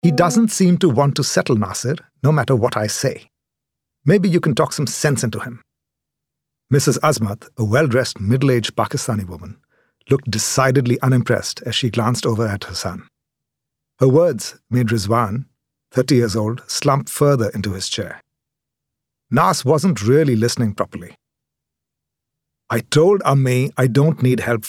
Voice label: AUDIOBOOK